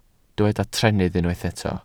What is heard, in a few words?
"trennydd", Welsh